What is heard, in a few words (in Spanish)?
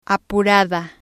"apurada", spanish